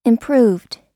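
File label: "improved", US English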